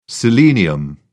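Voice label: "selenium", British English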